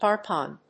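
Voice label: "harp on", English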